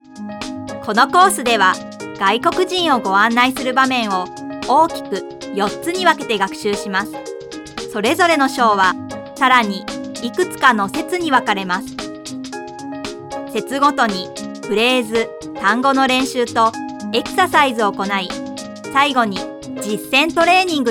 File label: E-learning